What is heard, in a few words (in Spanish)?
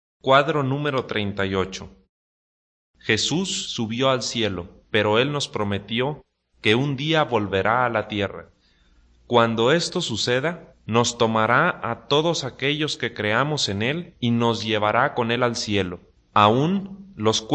good news spanish mexico